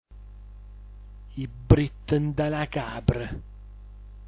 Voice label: "ibrittndanacapr", dialetto giulianova

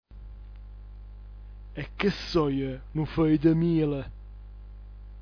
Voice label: "echsoinufjdmil", dialetto giulianova

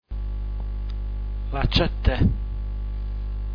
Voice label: "laciatte", dialetto giulianova

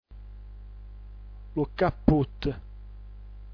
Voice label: lucapputt, dialetto giulianova

